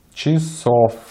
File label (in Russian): "часов", Russian